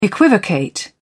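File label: "equivocate", British English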